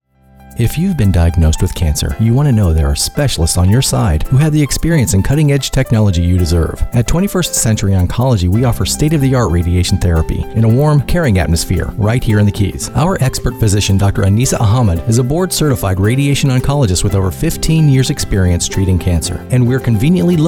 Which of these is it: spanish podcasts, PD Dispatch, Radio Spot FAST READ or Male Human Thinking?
Radio Spot FAST READ